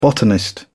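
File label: "botanist", British English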